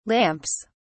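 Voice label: "lamps", British English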